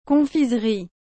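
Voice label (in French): "confiserie", French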